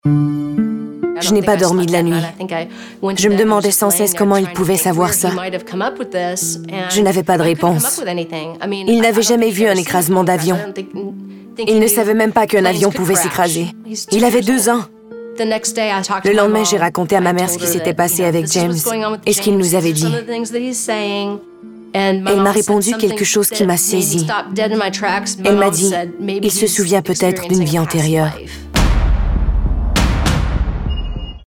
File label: Voice Over